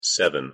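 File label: "seven", English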